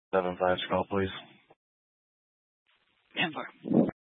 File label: Dispatch, scanner